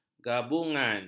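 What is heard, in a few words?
"gabungan", Malay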